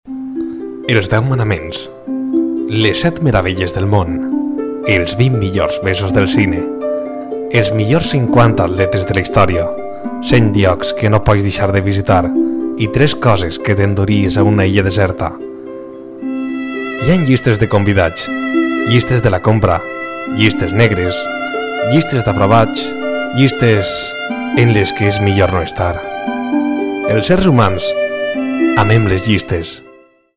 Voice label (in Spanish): locutor valenciano